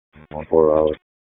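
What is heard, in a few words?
Police Southeast District Dispatch, p25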